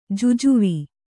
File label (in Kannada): "ಜುಜುವಿ", kannada